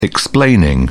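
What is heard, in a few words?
"explaining", English, British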